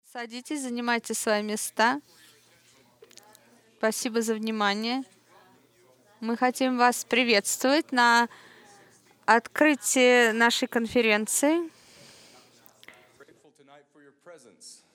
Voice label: Russian; iclrs symposium 2009